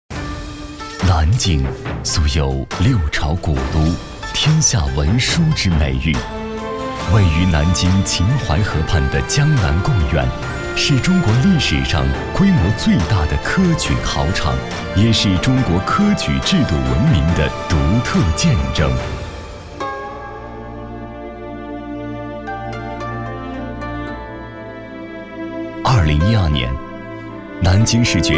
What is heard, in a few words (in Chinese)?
男国 专题 政府 沉稳; 博物馆